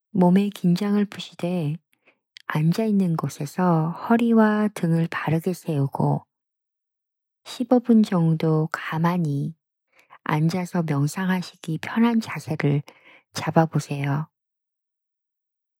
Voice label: Korean breath sound body, guided meditations